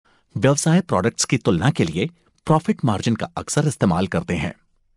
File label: hindi, Real